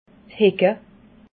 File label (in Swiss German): "hecke", alsace